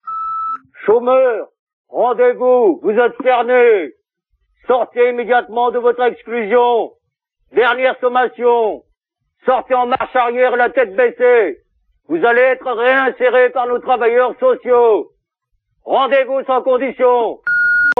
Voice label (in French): Chomage repondeur